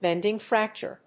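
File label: "bending fracture", English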